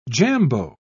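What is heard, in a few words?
"jambeau", British English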